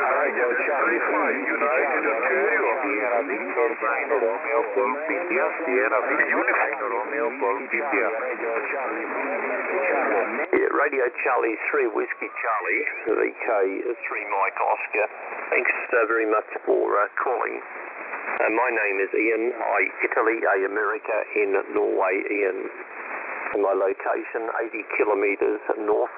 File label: qso; 20m; 14163khz